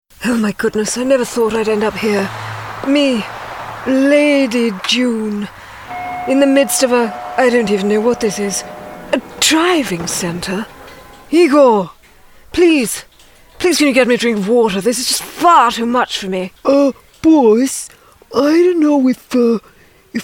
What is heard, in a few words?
Animation